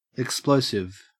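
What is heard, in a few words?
"explosive", Australian English; pronunciations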